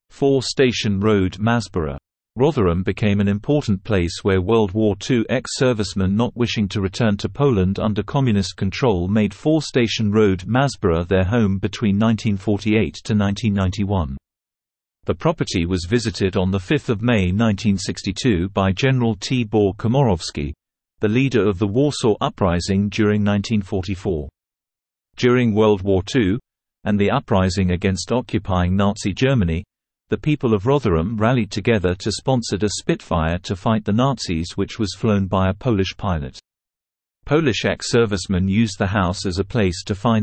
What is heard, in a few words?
Male; 4 Station Road Masborough